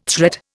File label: "threat", Indian